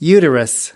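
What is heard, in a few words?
"uterus", US English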